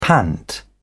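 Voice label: "pant", British English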